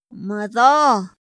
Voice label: "ma da", Otomí; Días de la semana; INPI; INALI